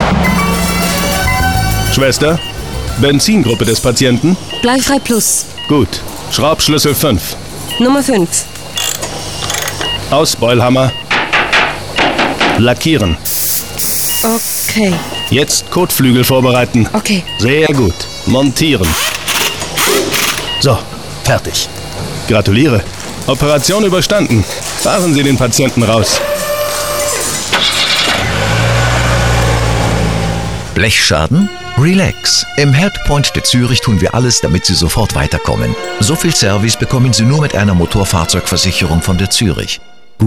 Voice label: Radio; Zuerich Radio; Emergency Room